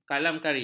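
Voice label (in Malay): "kalamkari", Malay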